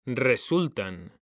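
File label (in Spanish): "RESULTAN", Spanish; mekanta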